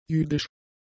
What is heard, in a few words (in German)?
"jüdisch", german; adjectives